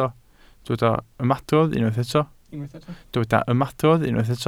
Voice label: "ymadrodd", Welsh